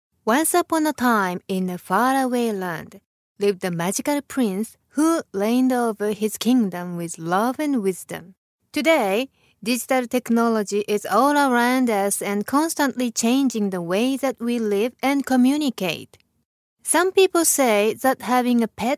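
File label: Japanese, female, English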